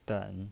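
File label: "den" Mandarin Chinese, third tone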